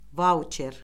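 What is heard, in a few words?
"vauçer", Azerbaijani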